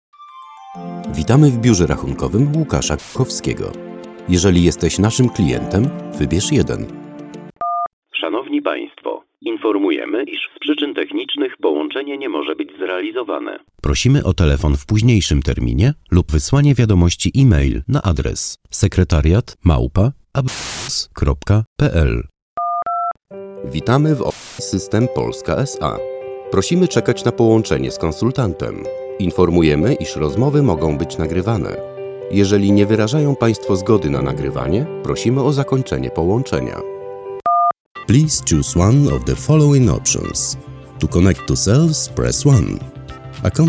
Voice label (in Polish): nagrania zapowiedzi telefonicznych